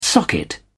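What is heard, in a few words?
"socket", British English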